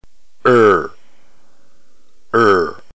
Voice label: rho, ntgreek alphabet phoneme